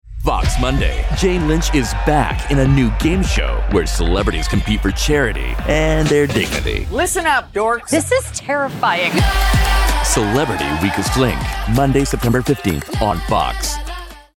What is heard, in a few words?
PROMO, Punchy · Authoritative · High-Energy; Promo Voice Over; Promo Demo Clips; The Weakest Link; CELEB